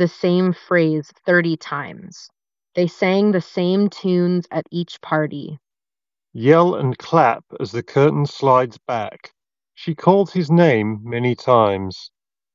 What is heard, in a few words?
Samsung Galaxy Buds 2 Pro Ideal microphone sample